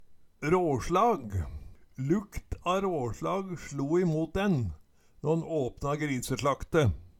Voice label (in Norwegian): raslag, Numedalsmål